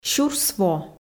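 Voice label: "сюрсво" Komi, female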